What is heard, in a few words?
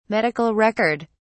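"medical record", English, British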